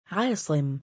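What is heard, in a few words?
"3aa9im" male, Arabic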